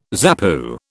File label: "ZAPU", British